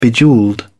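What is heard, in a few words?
"bejewelled", British English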